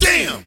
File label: "damn", expletives